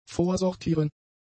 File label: "vorsortieren", German; adjectives